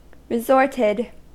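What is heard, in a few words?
"resorted", US English; pronunciations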